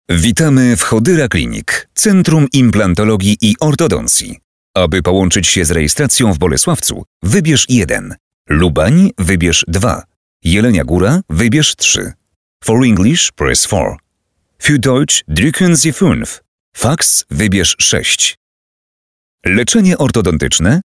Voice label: IVR